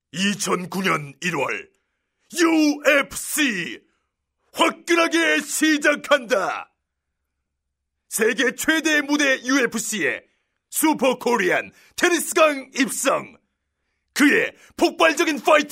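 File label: Korean male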